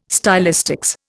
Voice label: "stylistics", Indian

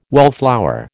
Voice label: "wallflower", American

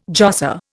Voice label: "josser", Indian

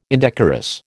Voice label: "indecorous", American

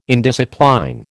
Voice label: "indiscipline", American

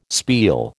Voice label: "spiel", American

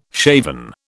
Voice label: "shaven", British

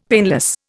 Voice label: "painless", Indian; Dictionary

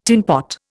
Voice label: "tinpot", Indian

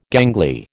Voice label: "gangly", American

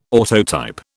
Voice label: "autotype", British

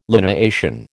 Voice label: "lineation", American

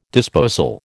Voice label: "disposal", American

